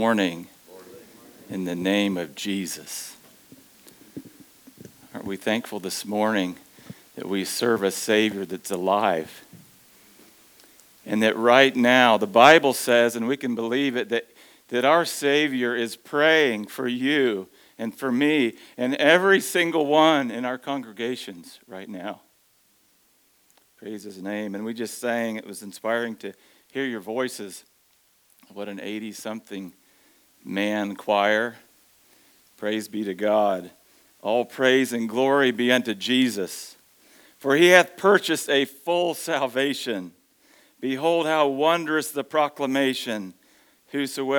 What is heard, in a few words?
Devotional